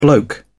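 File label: "bloke", British English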